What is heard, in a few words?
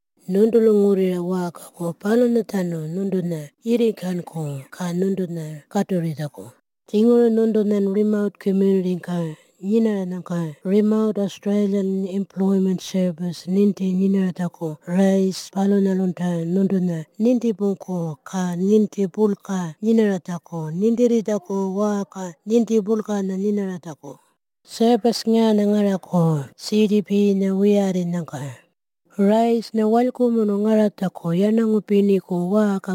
Audio message Ngaanyatjarra; RAES